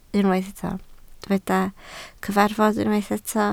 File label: "cyfarfod", Welsh